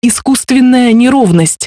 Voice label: warning pol, Navitel; MioMap C220